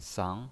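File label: "sang", Mandarin Chinese pinyin voice, second tone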